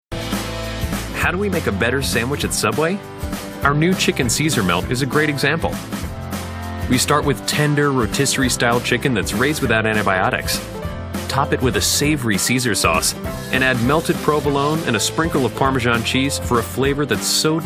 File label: commercial, men